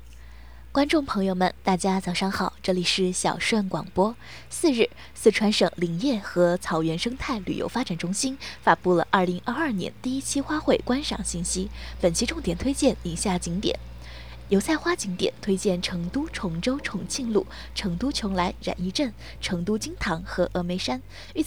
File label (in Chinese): 交通广播